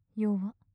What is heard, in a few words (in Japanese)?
よわ・近・左, ASMR; マゾオスのあなたに敗北射精の快楽を叩き込む音声